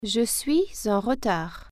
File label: im running late, french